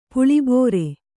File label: "ಪುಳಿಬೋರೆ", Kannada